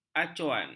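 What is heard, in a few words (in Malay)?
"acuan", Malay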